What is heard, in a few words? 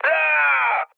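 Italian damaged pilot mood low, speech; War Thunder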